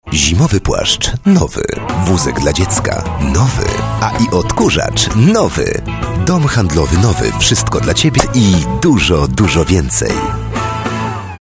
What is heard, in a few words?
Male VO Commercial